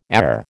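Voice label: "err", American English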